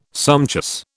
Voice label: "sumptuous", British